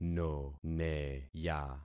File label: "noneya", concat syllables; Affixing experiment